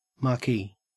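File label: "marquee", Australian English; pronunciations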